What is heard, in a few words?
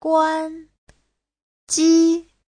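"guānjī", Mandarin Chinese; 关机 : tắt máy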